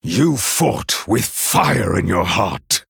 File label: Male Night Elf Victory; Kurtrus; Cariel